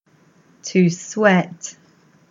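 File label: English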